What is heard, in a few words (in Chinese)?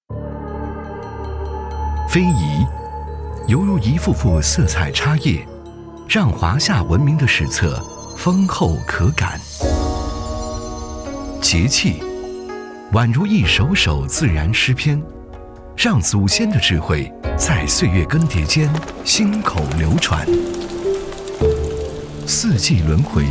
专题非遗文化沉稳讲述